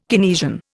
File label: "Keynesian", Indian